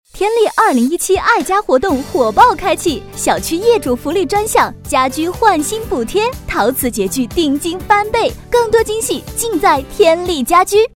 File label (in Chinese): 促销 女 时尚动感; 天丽家居女50